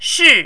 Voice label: "shi4", Mandarin

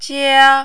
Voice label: "jia1", Mandarin

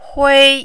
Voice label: "hui1", Mandarin

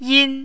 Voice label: "yin1", mandarin

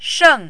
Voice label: "sheng4", Mandarin

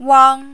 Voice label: "wang1", mandarin

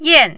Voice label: "yan4", mandarin

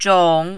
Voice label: "zhong3", mandarin

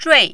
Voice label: "zhui4" Mandarin

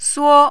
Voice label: "suo1", Mandarin

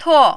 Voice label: "tuo4", Mandarin

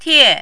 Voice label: "tie4", Mandarin

